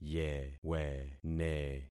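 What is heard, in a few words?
"yewene", concatenated syllables; Affixing experiment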